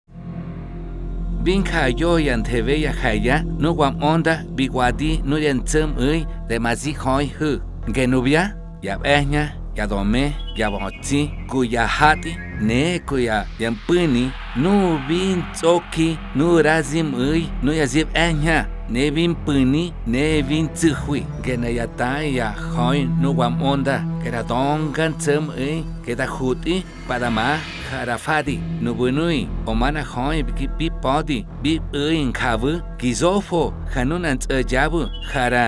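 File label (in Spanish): otomi del valle del mesquital; Trata Sexual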